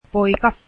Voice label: son, finnish